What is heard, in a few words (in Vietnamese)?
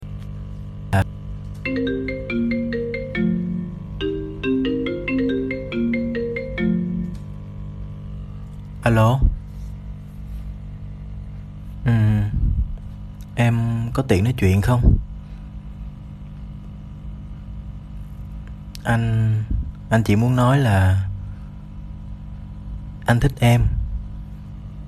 Ghi am giong nam troll nguoi yeu